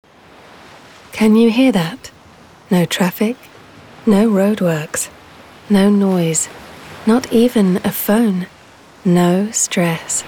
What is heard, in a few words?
Commercial Mix